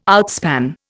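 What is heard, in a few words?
"outspan", Indian English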